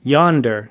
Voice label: "yonder", us